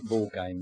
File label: ball game, Dorset